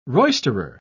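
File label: "roisterer", British English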